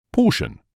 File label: "portion", English